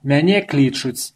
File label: my name is, belarusian; Мяне клічуць ...; (Mianie kličuć ...)